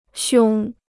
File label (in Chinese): "胸", Chinese